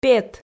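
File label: "пет", Komi, female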